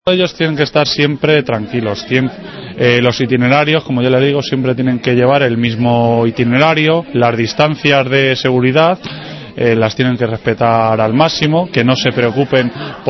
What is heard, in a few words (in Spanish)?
noticias